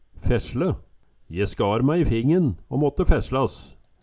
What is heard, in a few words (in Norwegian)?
"fesle", Numedalsmål